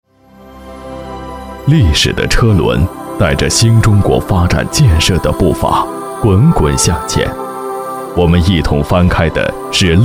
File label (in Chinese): 专题 政府 消防 浑厚, 男; 乐平消防